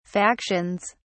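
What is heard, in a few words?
"factions", British English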